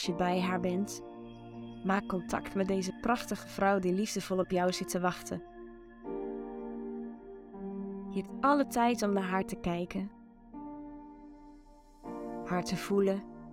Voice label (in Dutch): Je vrouwelijkste versie met muziek